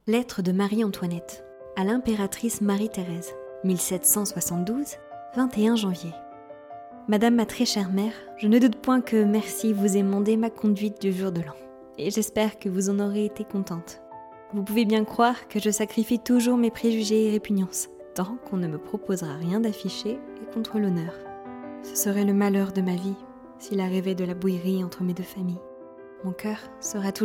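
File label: French, Narration; GoLocalise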